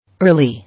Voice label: "Early", English

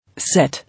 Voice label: "Sit", English

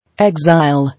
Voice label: "Exile", English

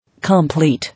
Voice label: "Complete", English

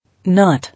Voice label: "Knot", English

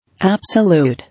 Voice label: "Absolute", English

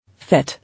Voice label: "Fit", English

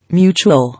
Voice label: "Mutual", English

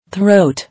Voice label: "Throat", English

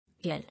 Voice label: "Yell", English

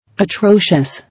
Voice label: "Atrocious", English

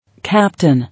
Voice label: "Captain", English

